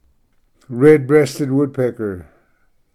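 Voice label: "red headed woodpecker", English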